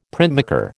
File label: "printmaker", American